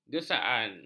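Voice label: "gesaan", Malay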